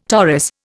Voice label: "Taurus", Indian